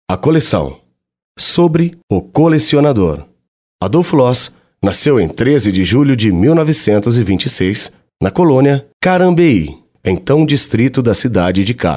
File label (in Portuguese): audio guia voz masculina; exposicao casa das borboletas; painel 1 sobre o colecionador